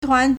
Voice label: "tuan2", Chinese